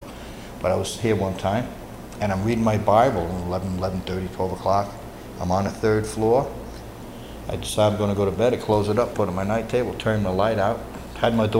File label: Spooky Story Laughing Ghost; halloween ghostly encounters